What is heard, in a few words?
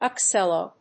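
"uccello", English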